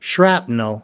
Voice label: "shrapnel", us